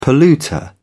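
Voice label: "polluter", British English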